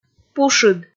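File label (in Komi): "пушыд", Komi, female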